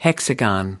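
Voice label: "hexagon", American English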